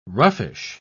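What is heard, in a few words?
"roughish", British English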